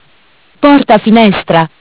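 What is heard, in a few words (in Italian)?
"Porta finestra", Italian; Bentel; BLE320; MESSAGGI VOCALI; Audio BGS220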